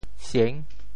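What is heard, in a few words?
"sieng6", Teochew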